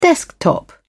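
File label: "desktop", British English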